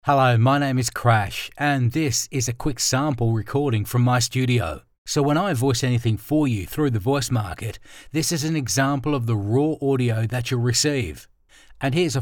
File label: Studio Sound